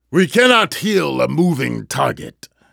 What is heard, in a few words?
moving target, vortigaunt; Half Life 2; Episode 2